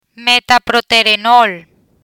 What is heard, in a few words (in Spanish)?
"Metaproterenol", Spanish